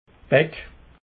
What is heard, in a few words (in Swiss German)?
"beck", alsace